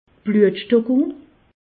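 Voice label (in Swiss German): "bluetstockung", Alsatian; medecine; thrombose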